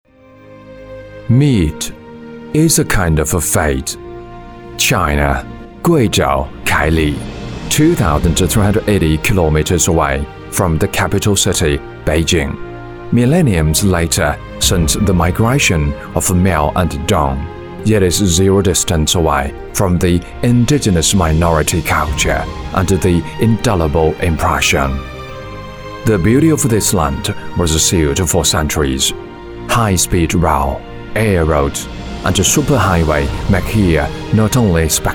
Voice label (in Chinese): 男英 外语 国内英语 宣传片 城市 讲述; 宣传片凯里城市